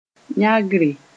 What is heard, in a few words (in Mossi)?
"yãgre", moore